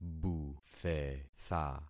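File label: "buthetha", concatenated syllables; Affixing experiment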